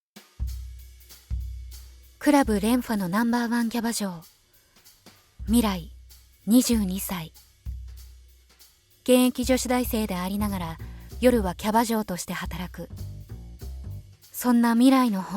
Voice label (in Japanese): ボイスサンプル 淡々ナレーション ドキュメンタリー